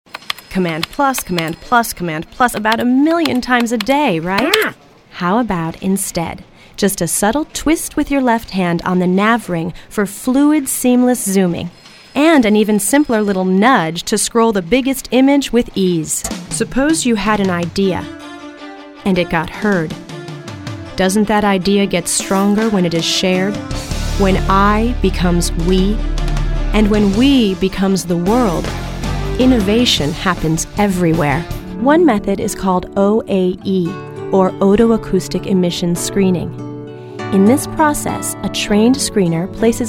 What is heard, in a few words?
Narration